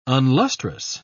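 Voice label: "unlustrous", English, British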